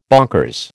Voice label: "bonkers", American